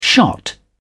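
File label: "shot", British English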